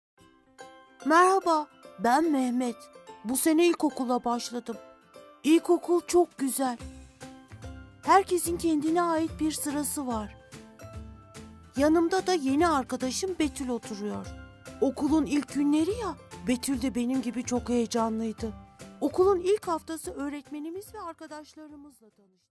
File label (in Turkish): ERKEK COCUK